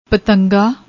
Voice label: "patanga", Punjabi

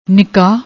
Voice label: "nikaah", Punjabi; ਨਿਕਾਹ

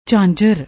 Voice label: "jhanjar", Punjabi

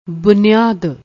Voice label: "buneyaad", Punjabi

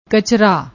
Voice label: "kchra", Punjabi; ਕਚਰਾ

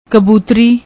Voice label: "kbootri", Punjabi; ਕਬੂਤਰੀ

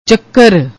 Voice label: "chakarr", Punjabi; ਚੱਕਰ

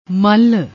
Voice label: "mall", Punjabi; ਮੱਲ; ملّ; noun, masculine; wrestler; noun, feminine; Gurmukhi; Shahmukhi

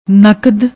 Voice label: "nakad", Punjabi; ਨਕਦ

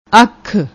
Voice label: "aukh", Punjabi; ਅੱਖ

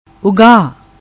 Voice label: "Ugga", Punjabi

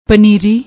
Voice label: "paneeri", Punjabi

